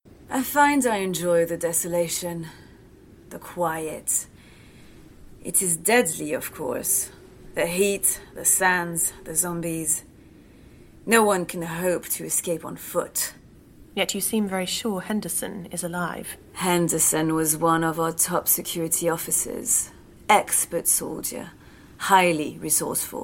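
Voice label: Audio Drama English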